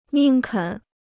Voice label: "宁肯", Chinese

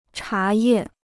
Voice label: "茶叶", Chinese

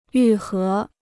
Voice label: "愈合", Chinese

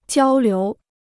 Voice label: "交流", Chinese

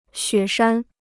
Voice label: "雪山", Chinese

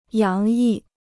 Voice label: "洋溢", Chinese

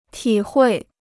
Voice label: "体会", Chinese

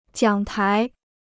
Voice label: "奖牌", Chinese

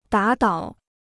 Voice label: "打倒", Chinese

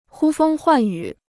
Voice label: "呼风唤雨", Chinese

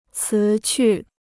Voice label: "辞去", Chinese

